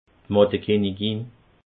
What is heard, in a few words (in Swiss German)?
"mattekinnigin", alsace